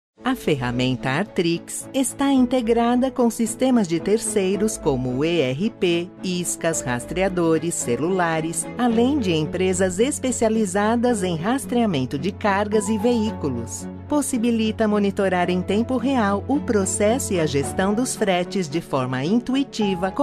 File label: portuguese brazilian automotive